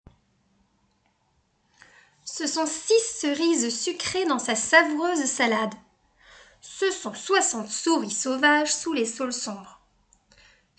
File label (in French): atelier lecture à haute voix